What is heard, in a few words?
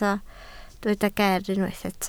"geer", Welsh